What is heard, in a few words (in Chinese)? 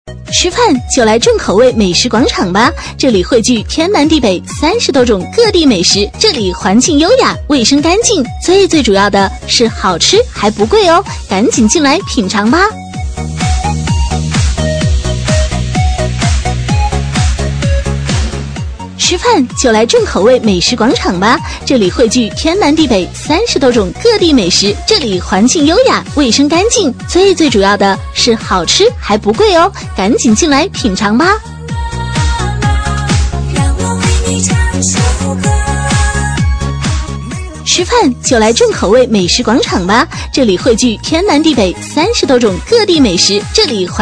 女 促销, 美食广场; 众口味美食广场